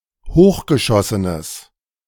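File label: "hochgeschossenes", German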